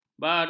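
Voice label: "baruh", Malay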